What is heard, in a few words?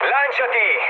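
Italian bailout mood low, speech; War Thunder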